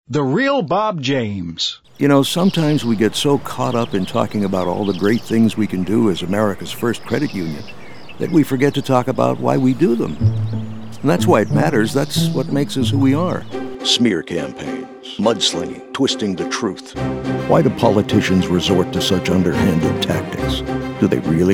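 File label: Male Talent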